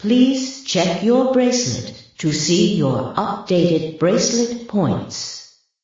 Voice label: announcer check your bracelets